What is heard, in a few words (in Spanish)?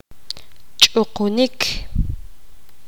poqomam cortar